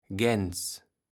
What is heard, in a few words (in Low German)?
"Gäns", Platt